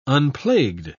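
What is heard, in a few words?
"unplagued", British English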